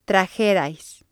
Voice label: "trajerais", Spanish

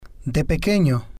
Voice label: "de Pequeño", spanish

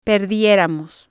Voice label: "perdieramos", spanish

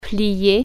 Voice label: fold, french

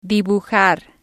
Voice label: "dibujar", Spanish

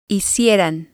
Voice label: "hicieran", spanish

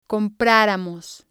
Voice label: "compraramos", Spanish

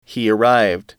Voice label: "he arrived", english

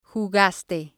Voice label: "jugaste", spanish

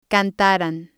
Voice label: "cantaran", spanish

